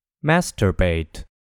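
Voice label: "masturbate", American English; pronunciations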